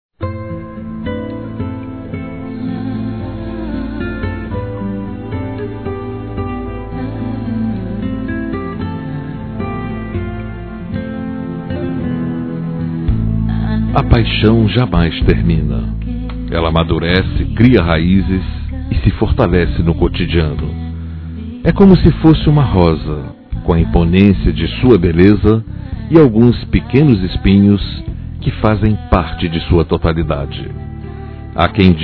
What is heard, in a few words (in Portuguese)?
ANIV. CASAMENTO NEUTRO M; Aniversários; ANIVERSARIO romantico; masc